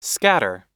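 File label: "scatter", English; oxford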